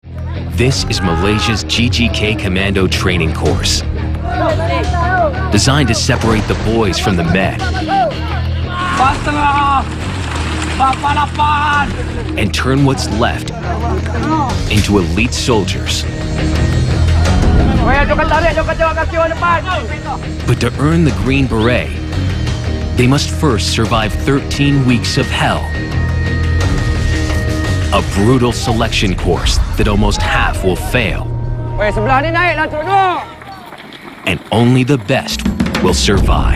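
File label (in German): Dokumentarfilm Erzahler Jugendfrei, English, male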